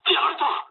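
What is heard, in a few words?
aircraft damaged mood low, speech japanese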